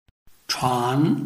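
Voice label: "chuan", Chinese, tone 2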